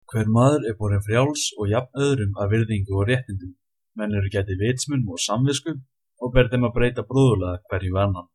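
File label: Icelandic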